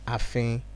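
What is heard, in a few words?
"afin", Nigerian Pidgin, male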